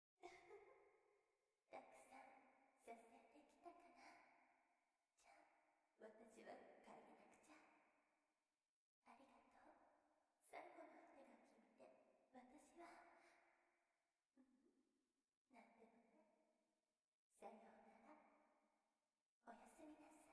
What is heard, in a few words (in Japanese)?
夢精用音声; 夢精体験キット ～サキュバス・レイラの、夢精する催眠音声～; effected